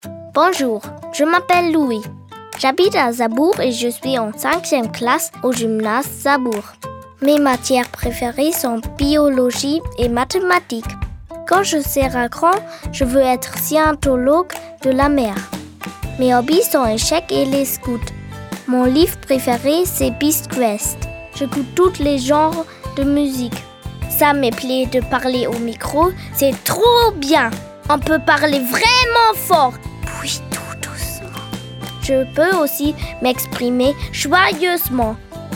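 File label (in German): Selbstvorstellung French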